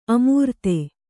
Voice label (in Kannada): "ಅಮರ್ದು", Kannada